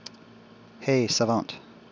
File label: hey savant, wake word, en; Precise-Community-Data